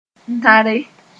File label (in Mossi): "tare", moore